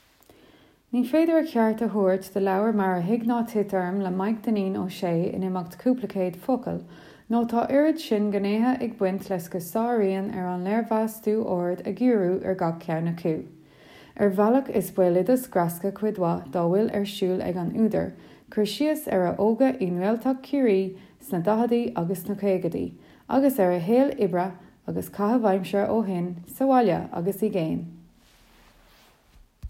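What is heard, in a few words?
Irish, Corporate; GoLocalise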